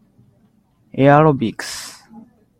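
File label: "aerobics", Japanese, male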